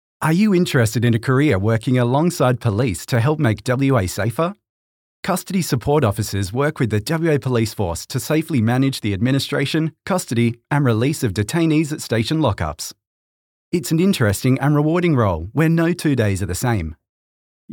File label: Radio Music